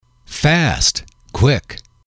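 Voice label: "fast quick", English, male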